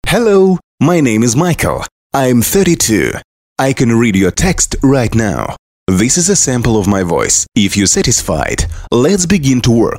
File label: Engl